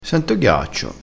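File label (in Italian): "ghiaccio", vocabolario dialettale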